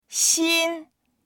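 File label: "xin1", Mandarin Chinese; 新; 新しい; dictionary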